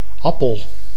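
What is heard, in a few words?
"appel", Dutch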